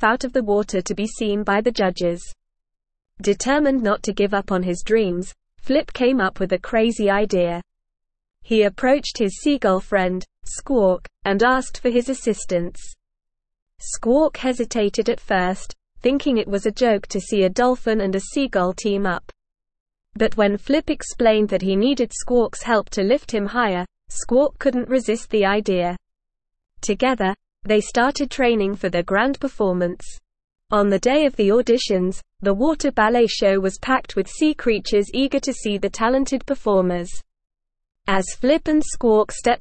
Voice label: ESL Short Stories for Kids Advanced NORMAL Reading The Dolphins Dance